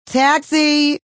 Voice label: Taxi, Female; Talking; VoiceBox